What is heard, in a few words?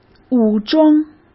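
"武装", Mandarin Chinese; chinese flashcards; hsk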